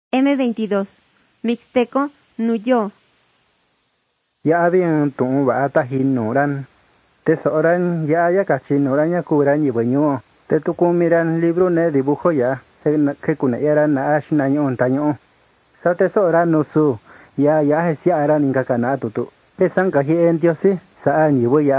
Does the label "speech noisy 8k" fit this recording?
No